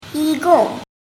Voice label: "yigong", Mandarin